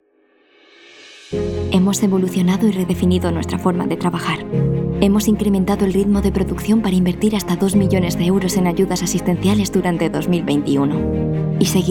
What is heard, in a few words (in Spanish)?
Corporativo